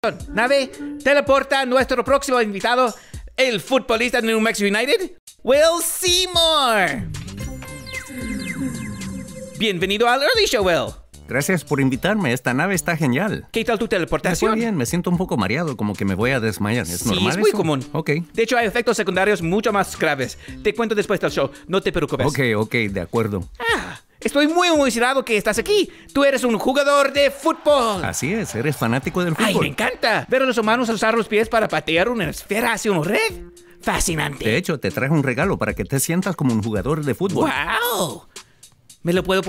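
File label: Dubbing Spanish; voiceover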